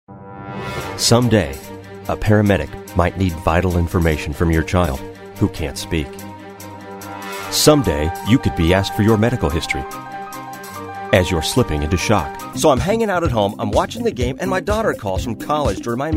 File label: Commerical